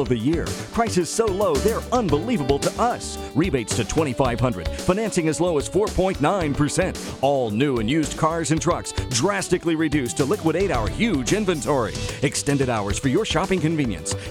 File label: Radio Commercials, Ford Dealer Commercial, male voice